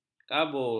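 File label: "kabur", Malay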